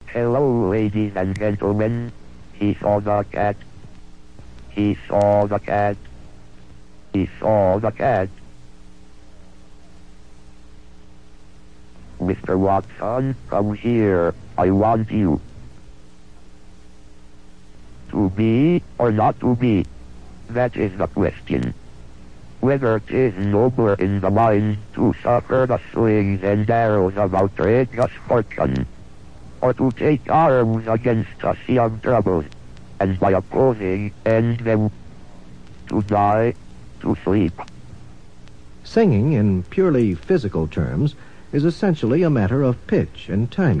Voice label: bell labs computer speech demo; Robotic or Stuttery or Spooky